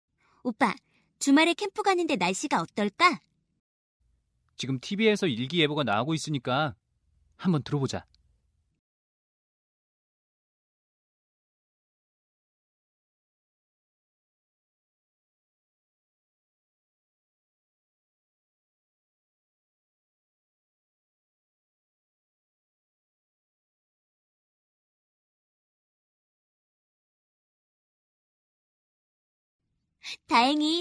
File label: tv english